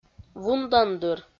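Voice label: "вундандыр", Komi, female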